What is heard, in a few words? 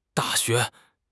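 "Da4 xue2", Mandarin Chinese; HSK1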